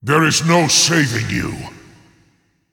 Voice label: Male Dread Lord Attack